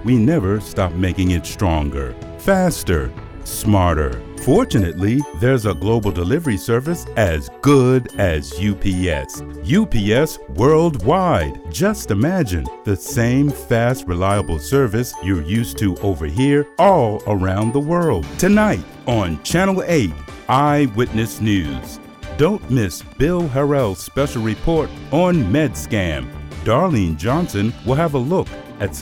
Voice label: Commercial